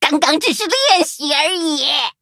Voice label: defeat, zh-hant; Cookie Run

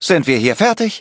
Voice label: victory, de; Cookie Run

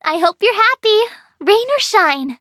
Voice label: touch, en; cookie run

